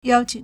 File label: "yao1qing3", Chinese